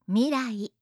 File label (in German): "mirai", japanische